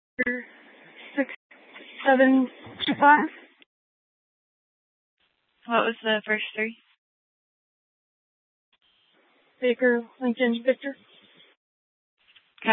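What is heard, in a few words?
police dispatch radio, scanner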